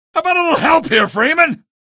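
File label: citizen evac defend us, male; vo; npc; source engine; episodic; episode 1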